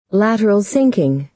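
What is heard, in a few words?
"lateral thinking", American English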